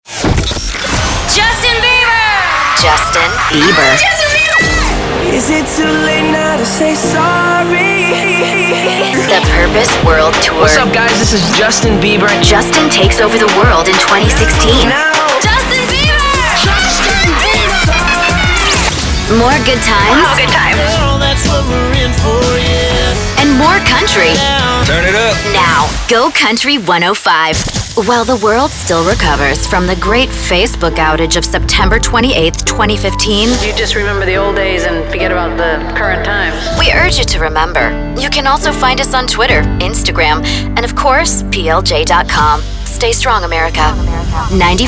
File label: English Imaging